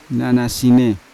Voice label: "nanasine", Yawa; body; unat

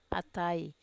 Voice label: "atai", Wamesa

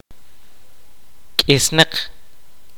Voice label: "scarce", kaqchikel

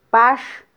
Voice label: "pax", mam

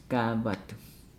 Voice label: "gaavadu", Nukuoro; give

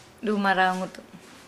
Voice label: Nukuoro; lips

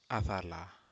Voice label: Aneityum go forth

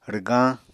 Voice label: "rwgañ", Apatani

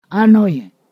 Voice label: "termite", Ponosakan

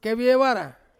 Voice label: "keviewara", Ansus; lightning

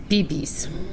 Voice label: "urine", Pingelapese

